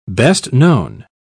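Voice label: "best-known", British English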